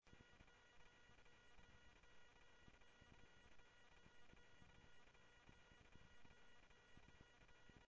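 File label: Lakes Sheriff Lake Patrol, scanner audio trunk; MCSO Lakes 1 SheriffLakePatro; ThompsonPeakScot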